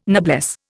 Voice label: "noblesse", Indian